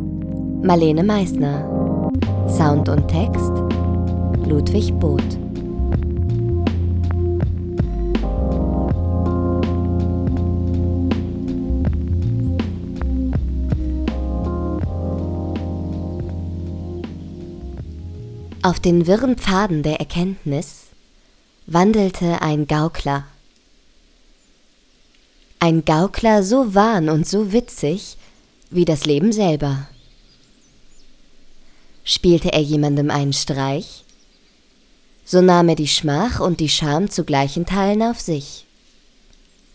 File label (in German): Kurzhörspiel; Wirre Pfade